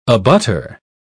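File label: "abutter", English, British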